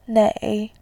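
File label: "nei", Mandarin, tone 3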